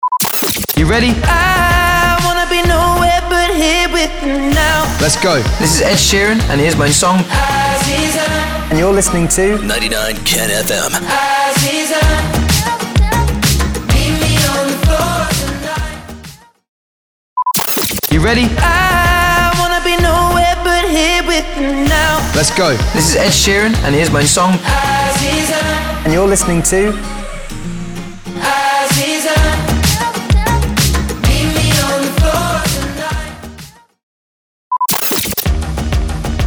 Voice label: SWEEPER, POWER INTRO, 128BPM